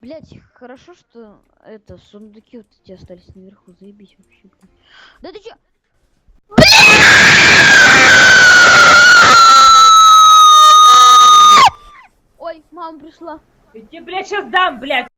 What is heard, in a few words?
BLYAAAAAAAAAAAAT, Russian; Soundpad